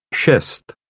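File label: "shest", Czech, male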